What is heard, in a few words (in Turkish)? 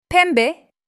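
"pembe", Turkish; renkler dunyasi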